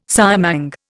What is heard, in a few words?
"siamang", Indian